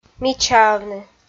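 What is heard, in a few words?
"мичаавны" Komi, female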